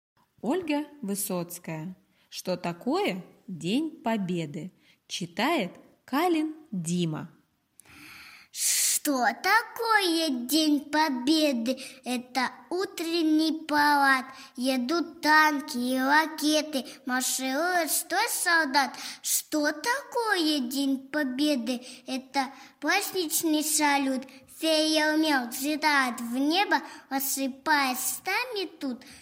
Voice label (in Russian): 5 let, Детский сад; MBDOU Detskiy sad 16 Elochka g. Kudymkara; O. Vysotskaya